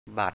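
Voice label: "bàt" Thai, 'card'; บัตร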